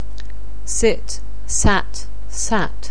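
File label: "sit", English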